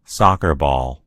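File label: "ball soccer", American English; gcompris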